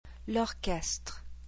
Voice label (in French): "l'orchestre", French